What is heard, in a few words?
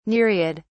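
"nereid", British English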